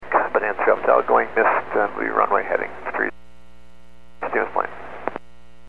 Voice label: Aviation 122.7; KSTE